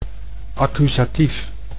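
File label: "accusatief", Dutch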